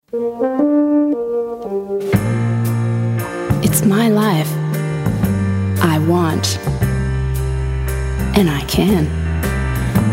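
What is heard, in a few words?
US English, Sanft; Fremdtake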